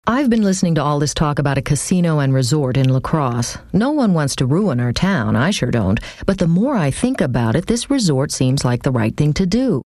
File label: informative